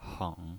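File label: "heng", third tone, pinyin voice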